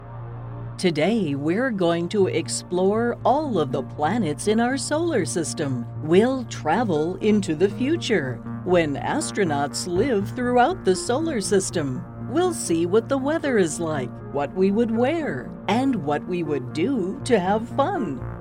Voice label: Childrens e Learning